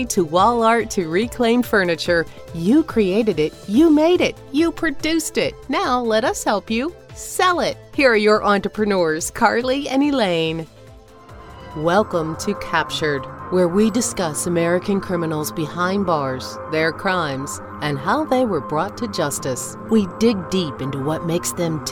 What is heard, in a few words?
Podcast Open Close